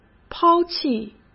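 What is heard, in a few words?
"抛弃", Mandarin Chinese; chinese flashcards; hsk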